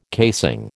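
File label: "casing", American English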